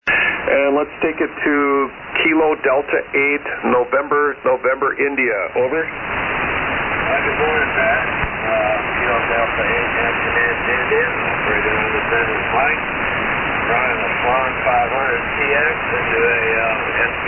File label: Swan 500-CX & SB-200 Amp; Spencerville, OH.